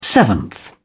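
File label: "seventh", British English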